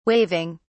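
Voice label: "waving", British English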